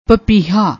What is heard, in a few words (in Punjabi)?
"papeeha", Punjabi